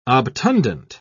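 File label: "obtundent", British English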